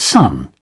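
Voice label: "Sun", British English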